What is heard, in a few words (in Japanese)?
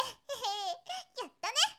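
"yattane", Japanese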